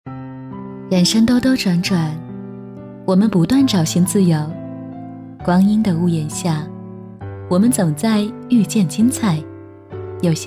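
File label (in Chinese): 旁白 女 文艺慵懒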